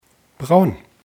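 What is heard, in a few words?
"braun", German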